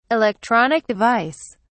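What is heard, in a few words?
"electronic device", British English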